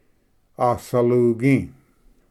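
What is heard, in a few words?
"asalugi", Cherokee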